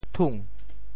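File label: "thung2", Teochew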